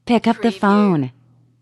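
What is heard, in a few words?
Woman Says Pick Up Phone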